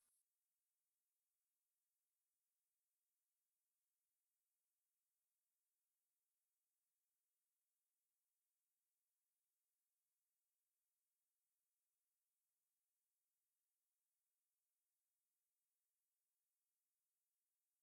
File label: Versant Test Typing instructions